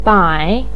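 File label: "bai3", Mandarin Chinese, third tone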